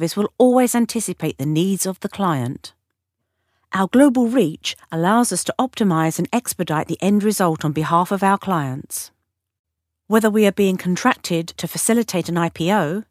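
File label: British corporate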